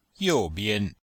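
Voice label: "you bian", Chinese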